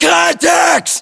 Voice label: threat infantry multiple, battlechatter, English, US